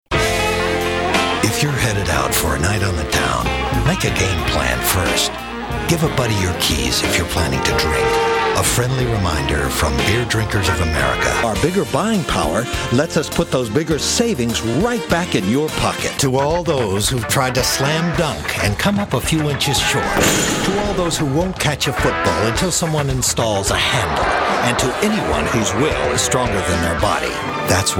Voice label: Commercial